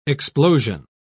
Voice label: "explosion", pronounce